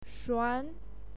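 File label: "shuan", Mandarin Chinese, second tone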